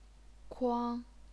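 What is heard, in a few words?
"kuang", Chinese, first tone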